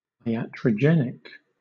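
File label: "iatrogenic", British English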